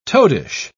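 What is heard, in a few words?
"toadish", British English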